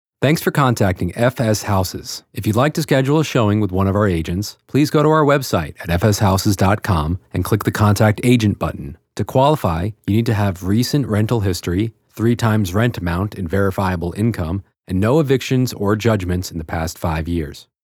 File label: voicemail